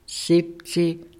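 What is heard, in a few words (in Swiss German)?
"sìbzeh", Alsatian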